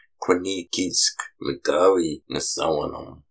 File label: long day tired; talking phrase book